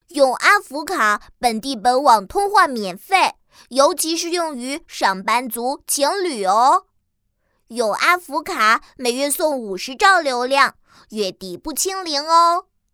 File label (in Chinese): 童声男 广告 男童; 通话; 阿福卡